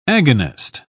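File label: "agonist", British English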